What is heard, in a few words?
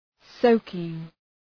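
"soaking", English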